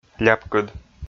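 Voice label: "ляпкыд", Komi, male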